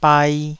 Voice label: "bai2", taiwanese syllables; 台語音節發音檔案